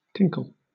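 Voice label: "tinkle", British English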